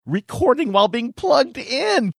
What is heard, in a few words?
funny